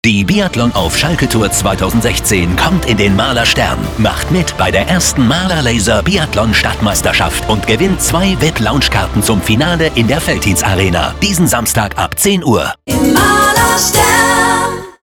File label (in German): radiospot; marlerstern